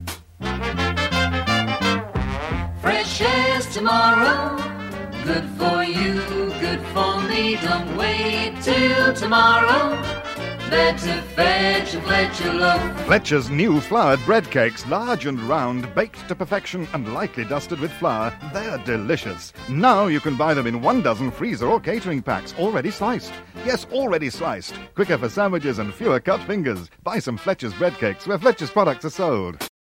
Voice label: Radio Advert; Radio Hallam; Fletchers